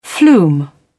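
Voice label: "flume", British English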